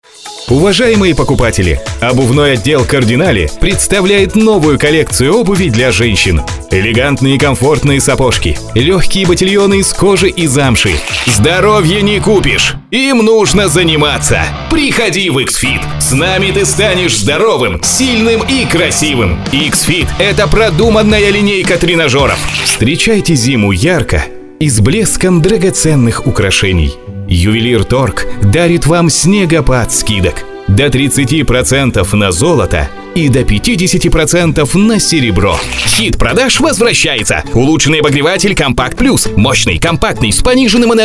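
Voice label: male voice, Russian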